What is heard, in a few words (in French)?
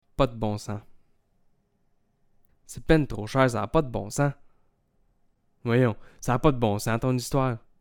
pas de bon sens, québécois